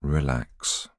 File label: voiceover